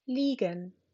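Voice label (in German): "liegen", German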